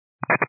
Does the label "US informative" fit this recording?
No